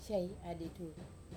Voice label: "sai adidu", Wamesa

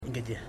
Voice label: "fourteen", Ipili

